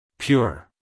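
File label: "pure", American English male; everyone-can-use-english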